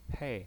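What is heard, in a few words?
"pei" fourth tone, pinyin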